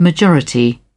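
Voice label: "Majority", British English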